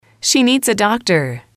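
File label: "she needs a doctor", English, female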